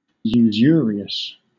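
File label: "usurious", British English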